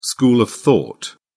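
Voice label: "school of thought", British English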